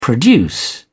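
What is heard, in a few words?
"produce", English, British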